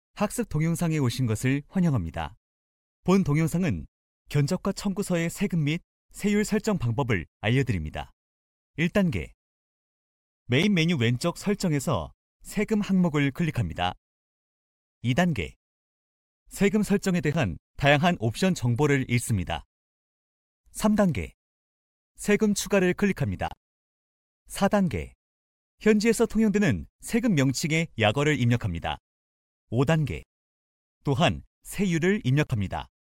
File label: Kr male, korean